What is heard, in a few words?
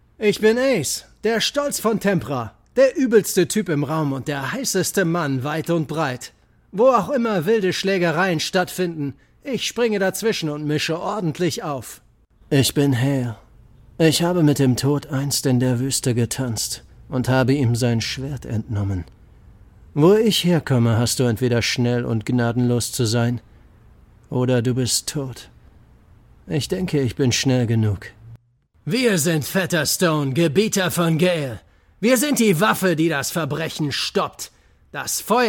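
German, game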